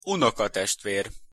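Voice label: "unokatestver", Hungarian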